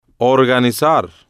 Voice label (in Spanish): "organizar", Spanish